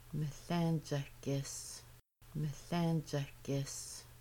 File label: We are stirring it, Kaska; Making Bannock